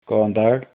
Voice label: hello, icelandic